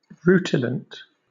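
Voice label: "rutilant", British English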